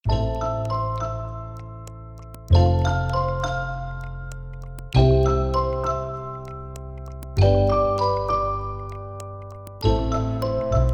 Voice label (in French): proverbes en patois